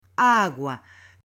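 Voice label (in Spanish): "AGUA", Spanish; ALIMENTOS; AppLenguaje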